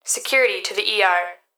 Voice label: Emergency Human Female Voice Hospital Calls Security To The ER; emergency effects